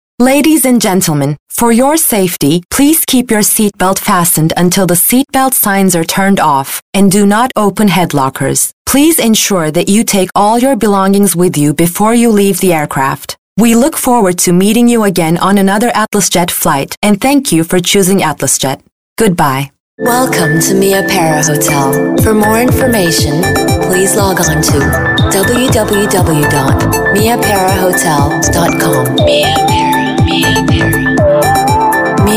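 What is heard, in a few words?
English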